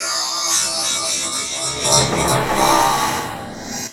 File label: VOICE, VOICE-FX; Best Service - XXL Production Effects